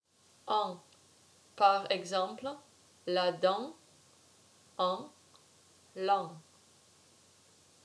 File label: "en", french